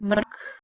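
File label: "mrHk", Proto-Indo-European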